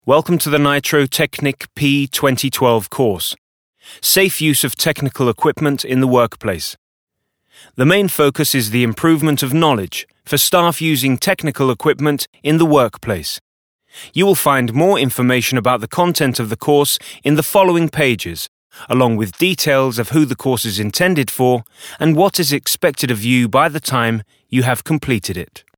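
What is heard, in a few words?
British elearning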